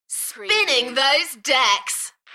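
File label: UK female, Enthusiastic, DJ vocals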